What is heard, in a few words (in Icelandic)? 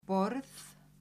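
"borð", Icelandic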